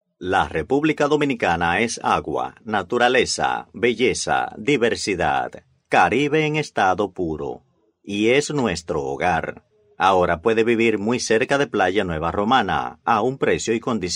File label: locutor dominicano dominican voiceover; locutor de Santo Domingo